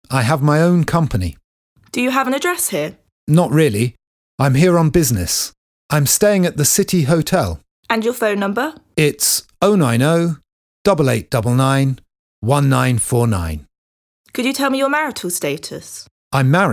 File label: Conversation; Bedside Manner Beginner